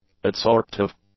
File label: "adsorptive", English; adjectives